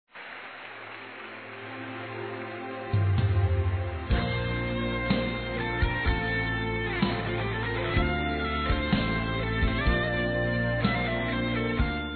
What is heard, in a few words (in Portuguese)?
Pastor Evangélico Feminino; Aniversários